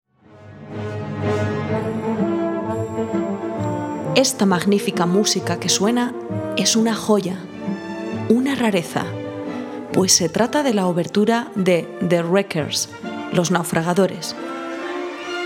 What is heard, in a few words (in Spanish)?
Muestra, narradora; Mujeres, Amor, Ópera